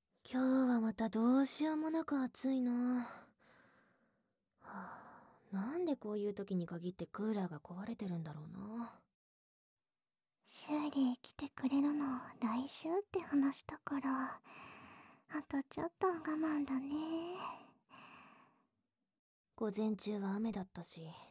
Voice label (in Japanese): 両耳舐め, ASMR; むちむちJKサンドイッチでらぶらぶ両耳舐めオナサボ♪